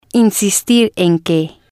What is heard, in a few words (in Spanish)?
insistir en que, Spanish